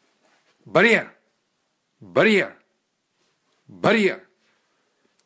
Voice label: Baryer, russian dog commands